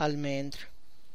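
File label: almond, Tlacochahuaya Zapotec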